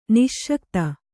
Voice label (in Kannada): "ನಸಿಗುತ್ತ", Kannada